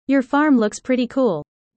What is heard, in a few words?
user fast; Animal friends